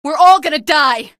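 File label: citizen buddy killed, female; source engine; episodic; episode 1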